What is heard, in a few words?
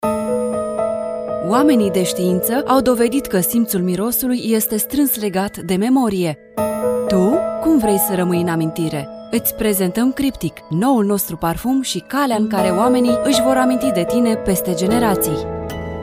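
Romanian, General; GoLocalise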